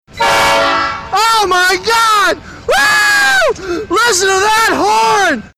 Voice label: excited train guy oh my god whoo listen to that horn